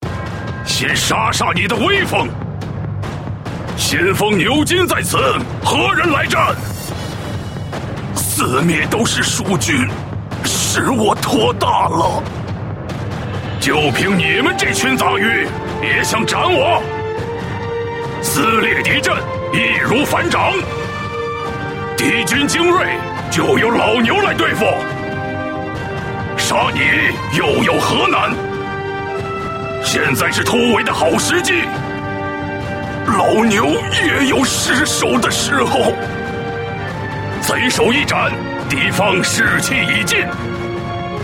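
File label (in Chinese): male, zhuanghan dashu (burly middle-aged man)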